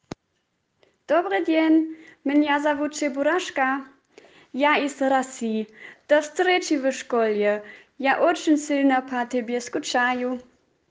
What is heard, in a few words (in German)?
russisch